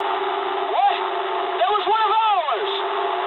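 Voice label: English player killed ally mood med; War Thunder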